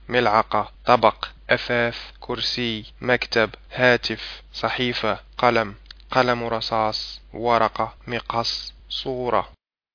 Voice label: arabic

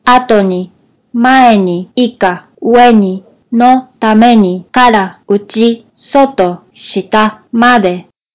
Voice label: japanese words

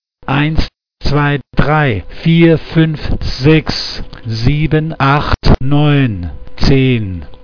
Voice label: german; numbers

